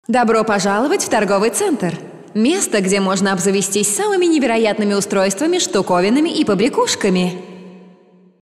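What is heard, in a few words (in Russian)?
reklama, advertisement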